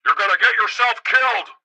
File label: police megaphone; Blind Drive